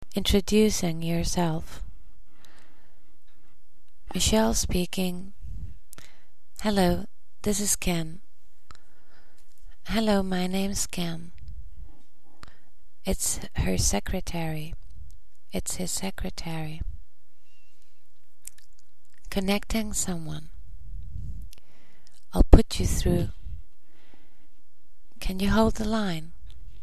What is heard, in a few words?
phone